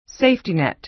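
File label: "safety net", American English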